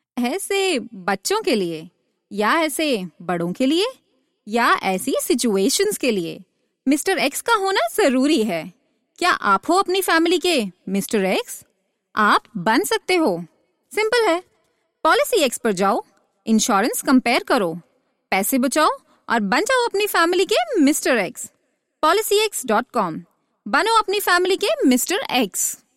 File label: hindi, female voice